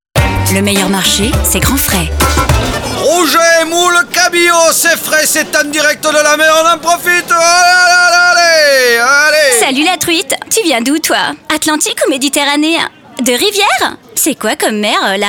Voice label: Pub jouee; Grand Frais; Truite

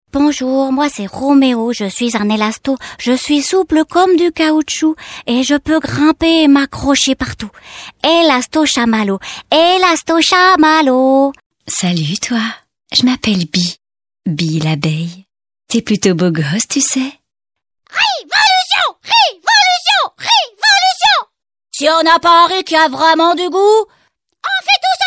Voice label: Voix Animation

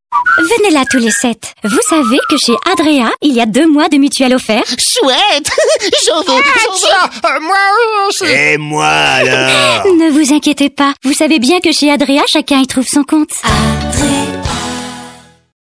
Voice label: Pub Radio; Adrea; Blanche Neige

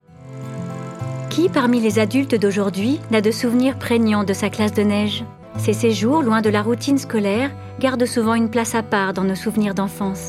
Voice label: Documentaire; Tous A La Neige; MontagneTV

